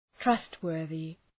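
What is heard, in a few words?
"trustworthy", English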